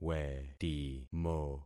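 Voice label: "wetimo", concatenated syllables; Affixing experiment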